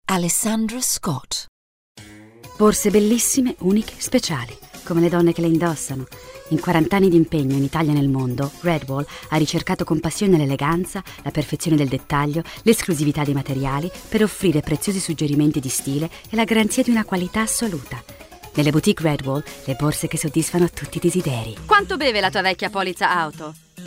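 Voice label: Italian, English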